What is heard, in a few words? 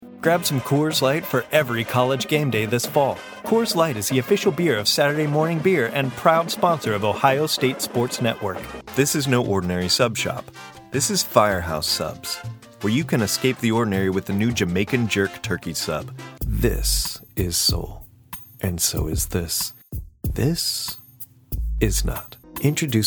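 Commercial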